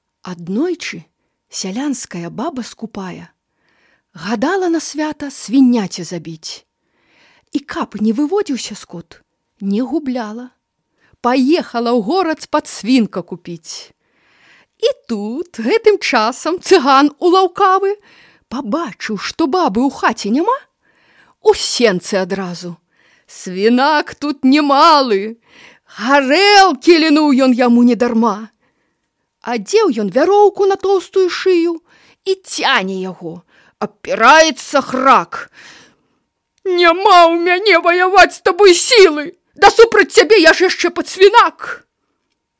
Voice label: Weissrussisch W2, voiceactors

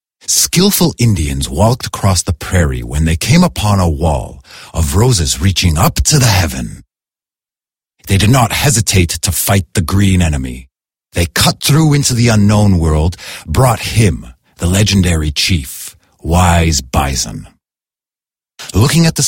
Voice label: Kanada M

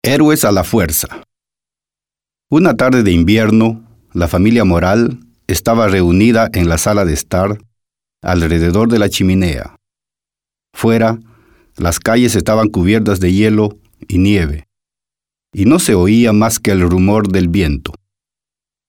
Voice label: Peru M

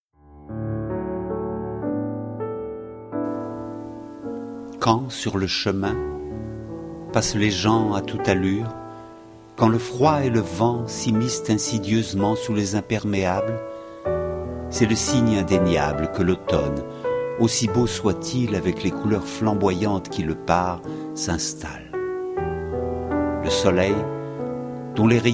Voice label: Frankreich M

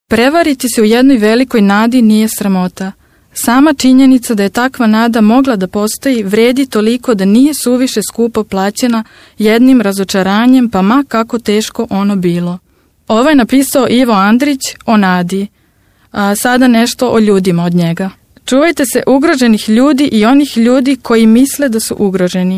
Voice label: Serbien W

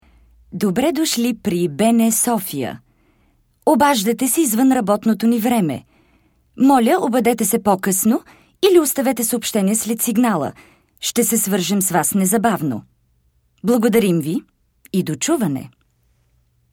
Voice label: Bulgarien W

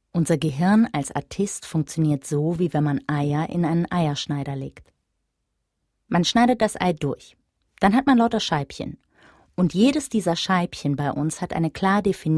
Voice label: Deutschland W8

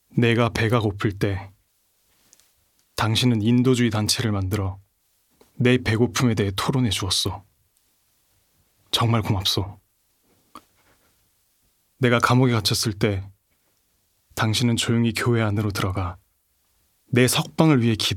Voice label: Korea M

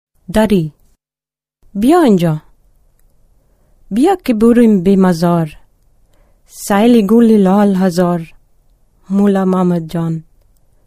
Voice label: Dari Afganistan W

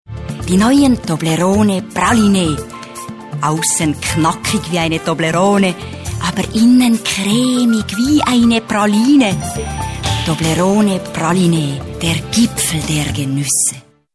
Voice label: Schweiz W

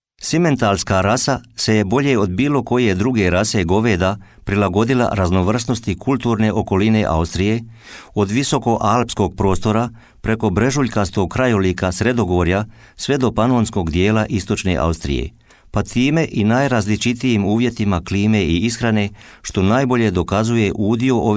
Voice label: Kroatien M

